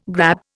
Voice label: "grab", Indian

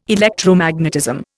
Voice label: "Electromagnetism", Indian

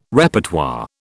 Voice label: "Repertoire", British

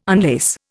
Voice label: "unlace", Indian

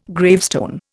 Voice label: "gravestone", Indian

